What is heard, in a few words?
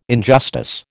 "injustice", American